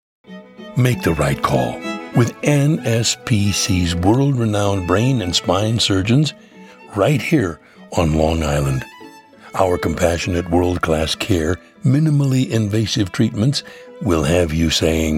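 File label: Soft Sell Commercial; NSPC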